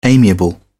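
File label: "amiable", English, British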